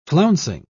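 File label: "flouncing", British English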